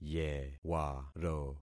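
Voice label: "yewaro", concatenated syllables; Affixing experiment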